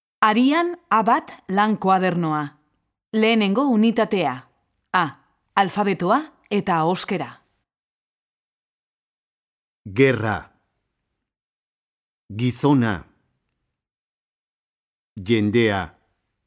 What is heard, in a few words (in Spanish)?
Dictado palabras